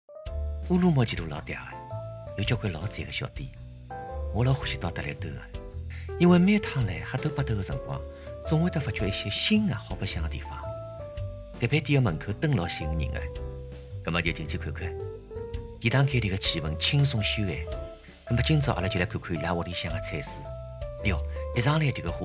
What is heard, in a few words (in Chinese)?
沪语 美食解说